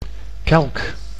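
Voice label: "kelk", Dutch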